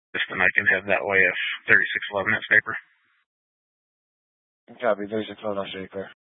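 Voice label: Police Dispatch, Scanner audio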